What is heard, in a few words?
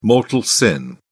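"mortal sin", British English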